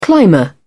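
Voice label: "climber", British English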